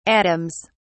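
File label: "atoms", English, uk British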